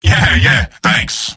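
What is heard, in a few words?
thanks, robot; mvm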